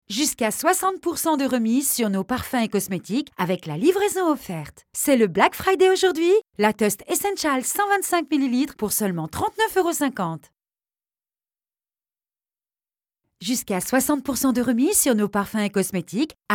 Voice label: FR, Voices